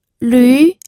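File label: "lü", second tone, pinyin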